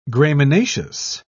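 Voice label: "graminaceous", English, British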